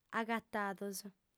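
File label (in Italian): "agatados", lingua sarda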